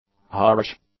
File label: "harsh", English; adjectives